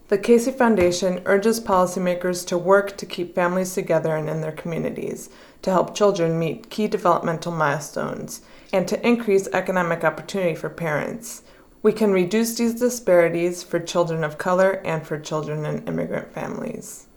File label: english; RaceforResults